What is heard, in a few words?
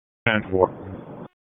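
Police Southwest District Disp, radio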